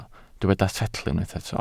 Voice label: "setl", Welsh

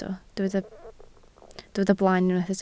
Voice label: "blaen", Welsh

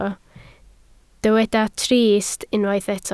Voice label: "trist", Welsh

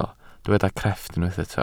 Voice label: "crefft", welsh

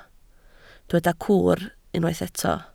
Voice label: "cwr", Welsh

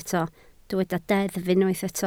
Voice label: "deddf", Welsh

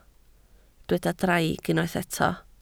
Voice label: "draig", Welsh

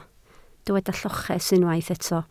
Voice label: "lloches", Welsh

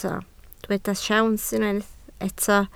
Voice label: "siawns", Welsh

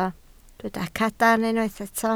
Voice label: "cadarn", Welsh

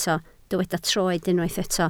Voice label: "troed", Welsh

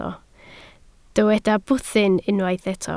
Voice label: "bwthyn", Welsh

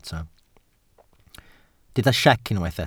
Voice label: "siec", Welsh

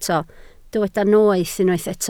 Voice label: "noeth", Welsh

